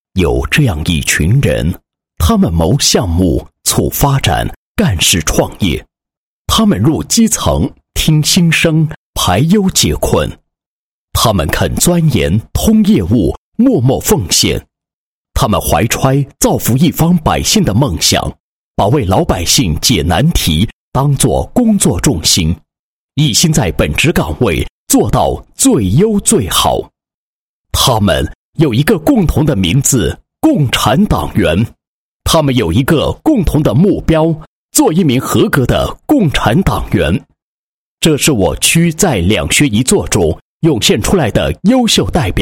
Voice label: 男 工作汇报片; 新华区 两学一做

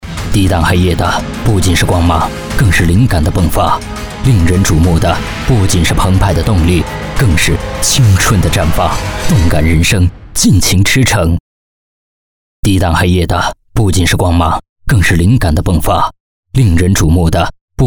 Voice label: 品质广告 汽车 活力, 国语男声配音

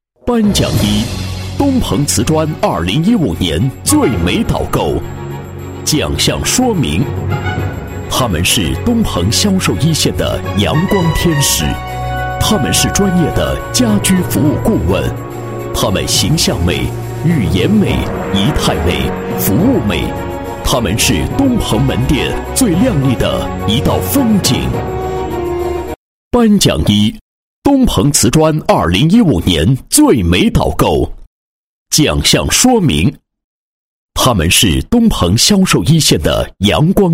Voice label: 男-颁奖-2016经销商年会颁奖词, 配音案例

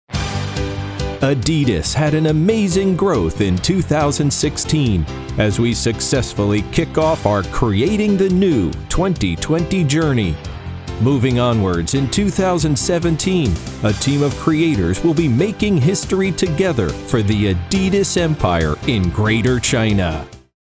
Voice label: 外籍英男 激情 振奋; 阿迪达斯

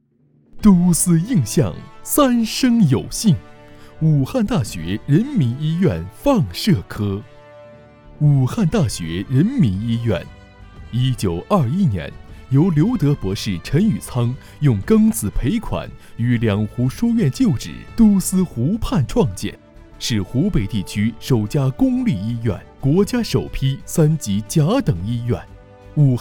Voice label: 男 专题 医院; 武汉大学人民医院

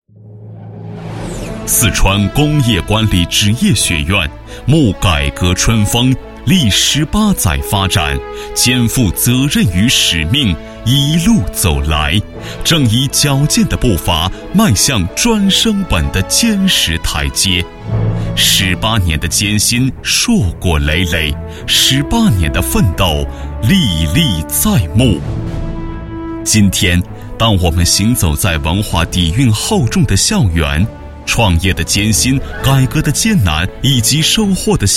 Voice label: 男 专题, 国语男声配音; 四川工业管理职业学院; 超级广告王

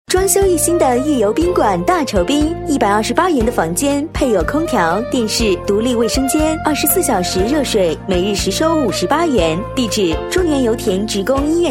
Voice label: 女 广告, 国语女声配音; 年轻专题; 酒店

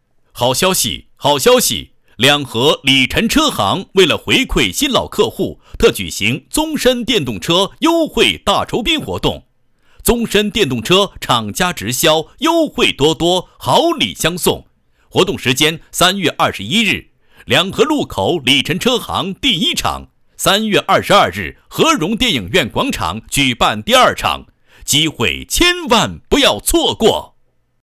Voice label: 好消息 车行回馈酬宾活动配音

